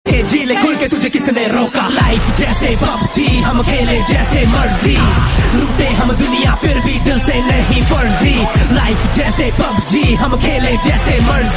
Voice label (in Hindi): hindi rap ringtone; pubg